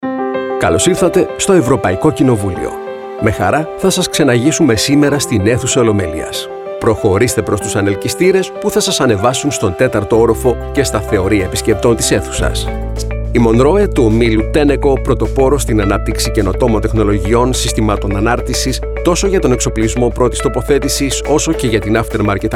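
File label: Greek corporate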